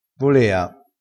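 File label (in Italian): "volea", dialetto crespinese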